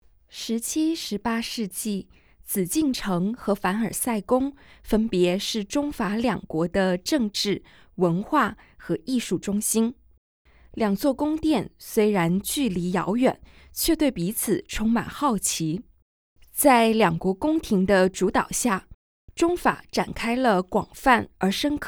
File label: Mandarin; audio guide; versailles